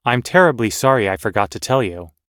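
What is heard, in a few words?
everyday apologizing, American English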